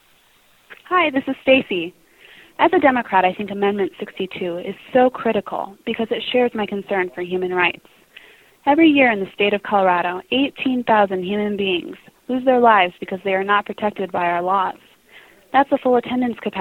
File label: robocall